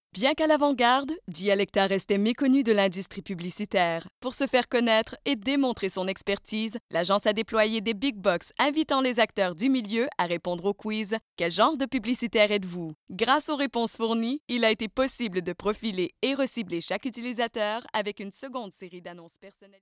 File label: French Canada